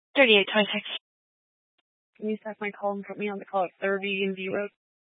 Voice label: Police Dispatch, scanner; Police 1 Dispatch